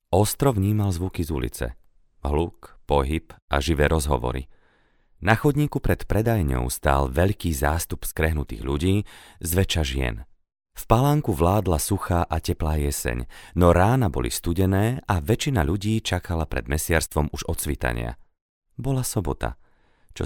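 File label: Slovak, male, narration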